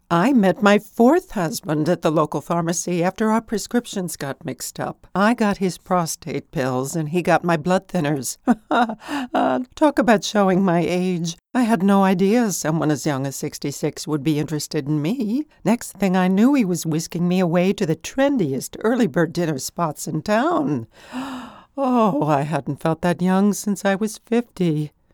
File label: Medicare testimonial